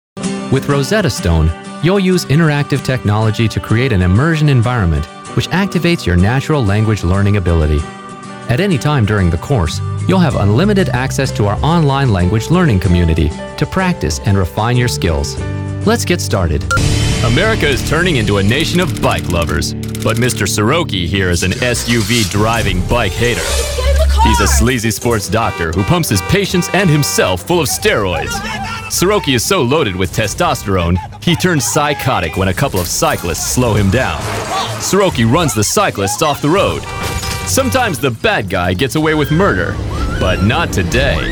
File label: Narrations